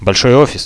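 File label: big office, radio, Russian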